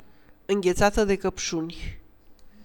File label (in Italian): menu, rumeno; frasi utili